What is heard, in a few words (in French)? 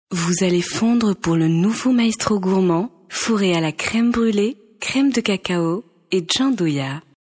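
Tunisian French, female, commercial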